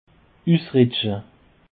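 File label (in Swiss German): "usrutsche", Alsatian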